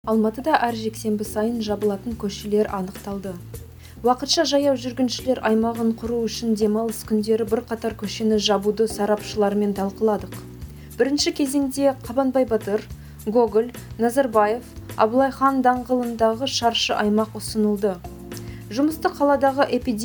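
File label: Kazakh, General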